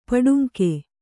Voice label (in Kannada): "ಪಟ್ಟಂಗ", Kannada